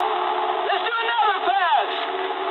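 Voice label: bomb failure mood medium, speech English; War Thunder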